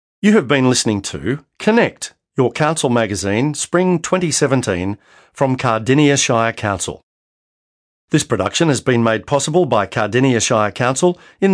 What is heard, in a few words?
closing announcement